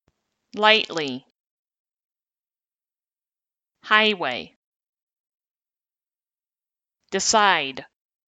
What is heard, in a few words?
stressed ai